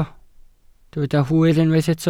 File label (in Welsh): "hwyr", Welsh